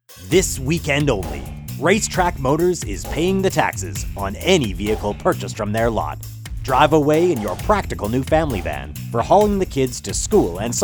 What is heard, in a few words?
Car Commercial